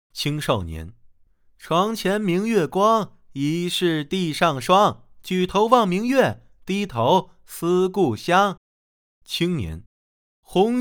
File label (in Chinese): 声展男